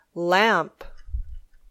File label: "lamp", English